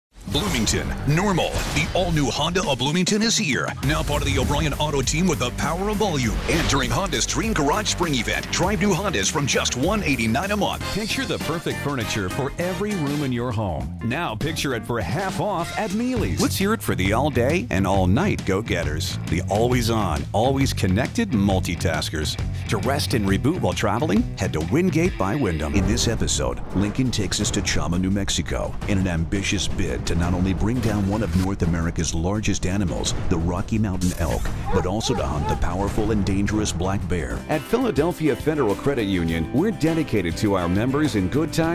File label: Commercial